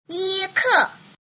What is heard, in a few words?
"yike", Mandarin